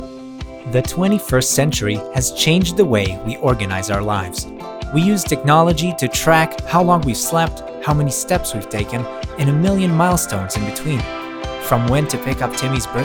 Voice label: Explainer EN VO